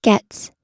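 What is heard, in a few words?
"gets", American English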